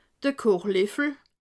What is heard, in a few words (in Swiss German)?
"kochleffel", alsace